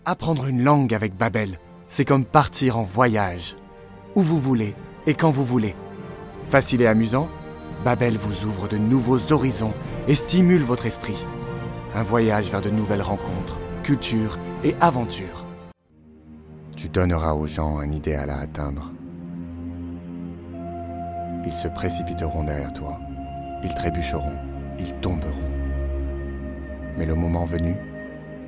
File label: French